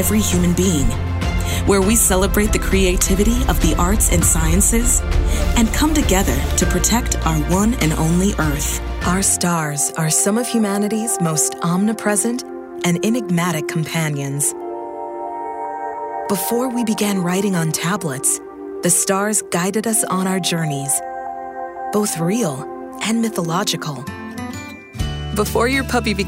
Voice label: women narration

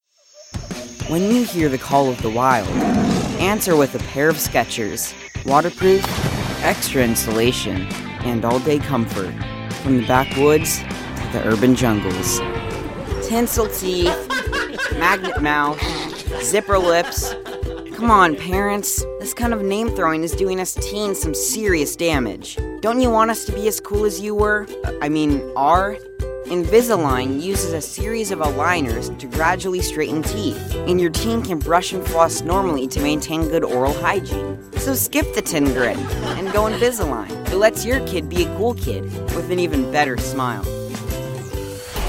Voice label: kids commercial

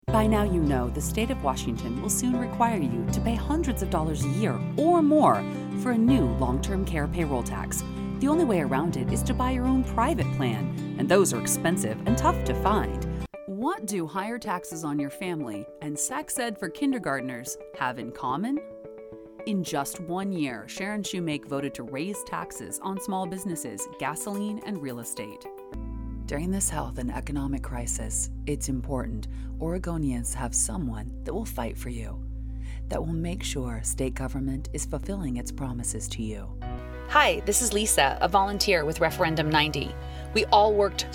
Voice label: women political

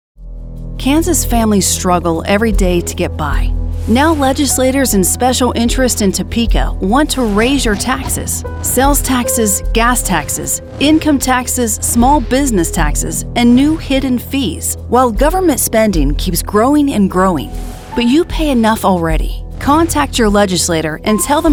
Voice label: women political

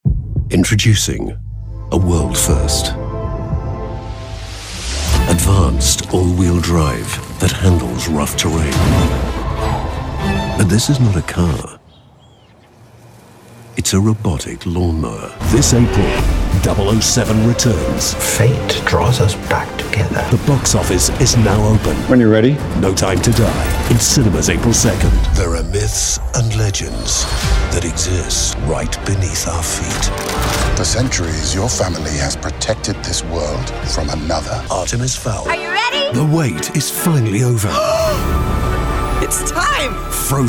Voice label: british commercial

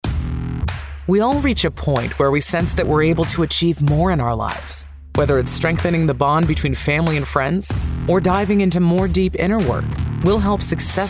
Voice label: women other podcast

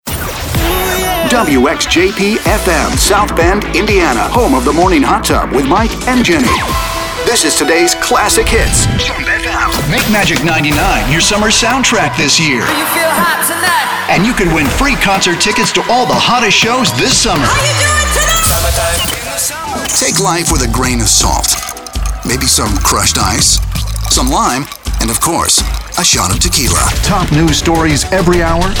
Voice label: men radio imaging

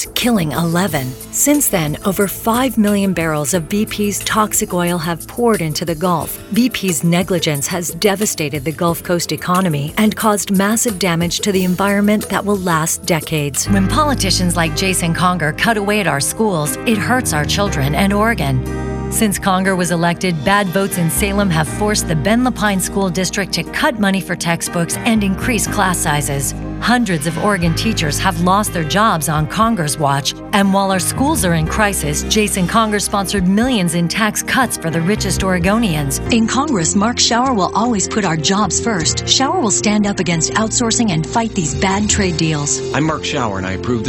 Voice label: women political

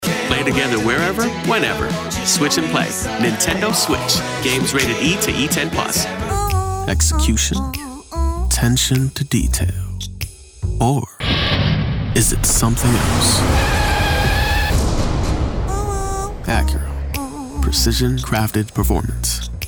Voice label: african american commercial

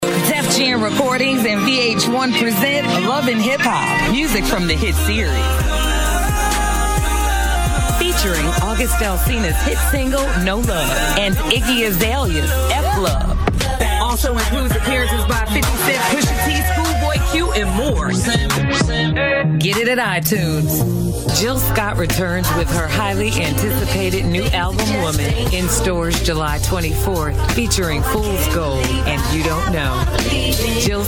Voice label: women commercial

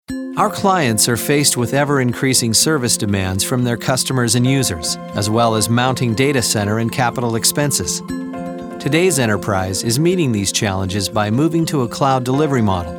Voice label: men narration

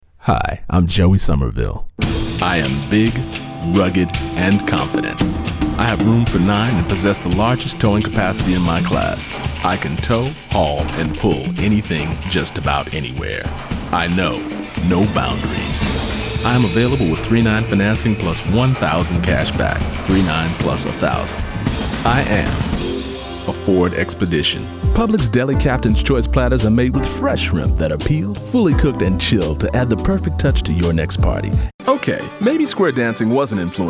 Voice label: men commercial

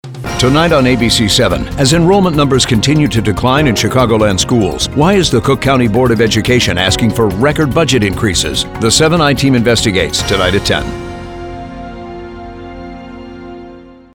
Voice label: men promos

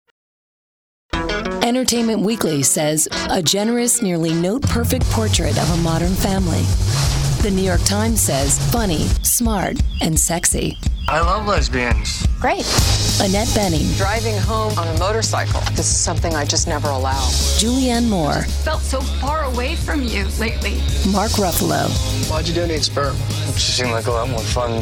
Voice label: women trailers

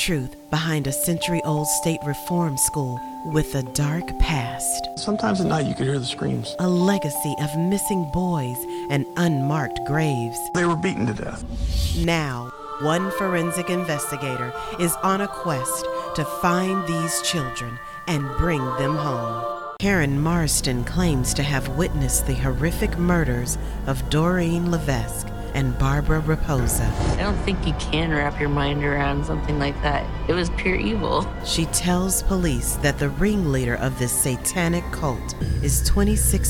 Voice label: women other crime narration

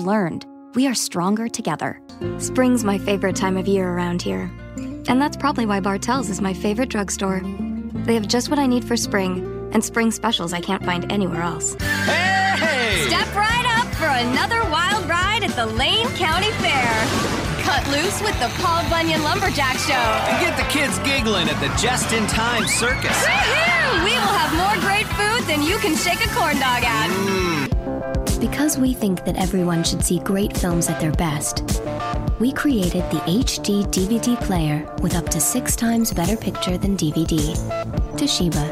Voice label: women commercial

